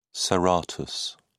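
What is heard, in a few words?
"serratus", British English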